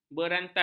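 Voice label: "berantas", Malay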